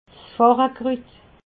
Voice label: "farnkrut", alsace

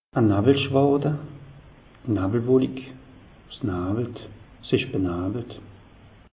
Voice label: "nawwel schwade", alsace; meteo

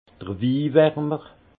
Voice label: "wiwarmer", alsace; vin; réchauffeur du vin

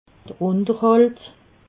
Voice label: "unterhalt", alsace

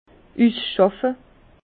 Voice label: "usschaffe", Bas Rhin; vin; dégrossissage

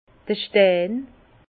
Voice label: "stein", Bas Rhin; vin; pierre

